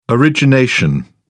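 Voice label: "origination", British English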